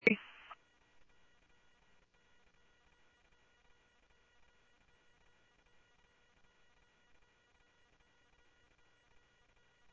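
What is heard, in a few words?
police department, scanner audio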